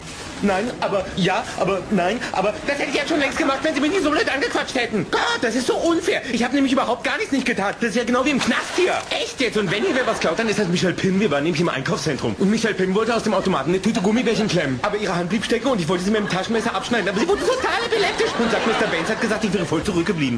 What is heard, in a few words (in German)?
vicky schimpft; little britain